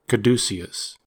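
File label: "caduceus", US English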